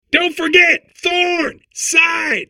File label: babble; Portal 2